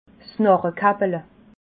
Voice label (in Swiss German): "narrekappele", alsace